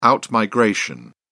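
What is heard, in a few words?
"out-migration", British English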